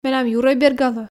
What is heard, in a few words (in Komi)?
менам юрӧй бергалӧ, f